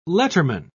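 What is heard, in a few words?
"letterman", British English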